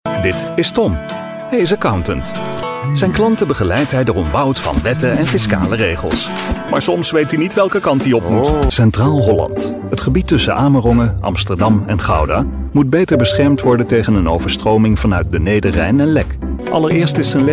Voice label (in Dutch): NL narration